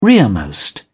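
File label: "rearmost", British English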